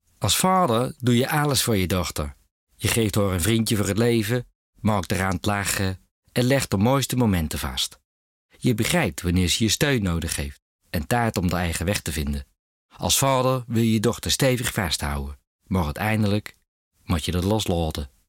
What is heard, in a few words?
Utrechts